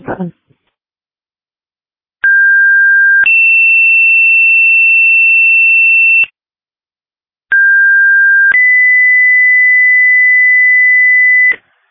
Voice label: Fire, dispatch; Sumner